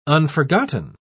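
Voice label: "unforgotten", English, uk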